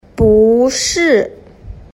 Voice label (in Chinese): "bushi", Chinese